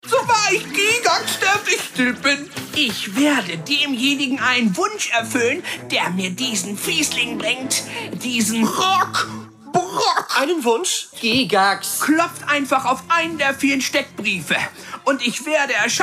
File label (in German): Animation